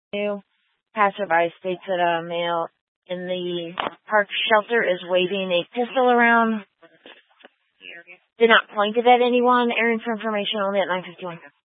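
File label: Incident Tac 3, Scanner audio recording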